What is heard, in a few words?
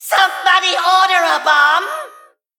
Male Mech Play